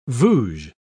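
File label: "vouge", British English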